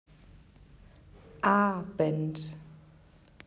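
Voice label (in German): "Abend", German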